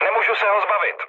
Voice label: aircraft damaged, speech cze, mood low